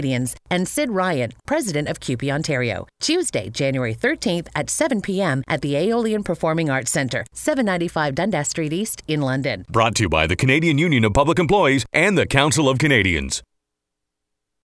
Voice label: Female, Bottled water; CUPE; london